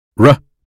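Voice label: "run", American English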